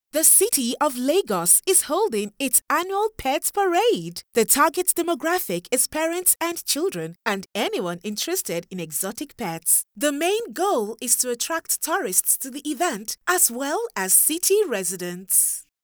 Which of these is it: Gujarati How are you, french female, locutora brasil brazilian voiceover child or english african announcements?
english african announcements